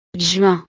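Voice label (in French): "juin", French; los meses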